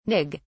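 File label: "nig", British English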